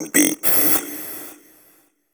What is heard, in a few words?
techno male 140; Vocals